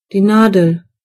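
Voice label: needle, German voices words; gcompris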